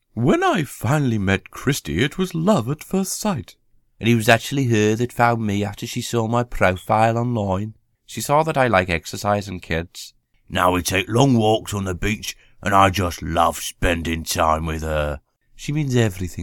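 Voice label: English, male, Characters, voice over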